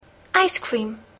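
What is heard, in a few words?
"ice cream", English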